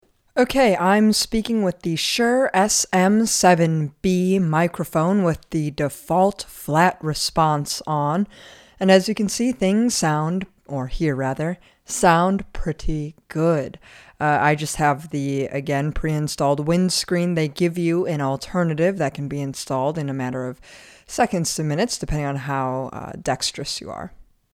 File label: Shure SM7b voice flat response